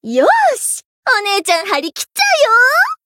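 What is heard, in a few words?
Voice encount, Japanese; ilulu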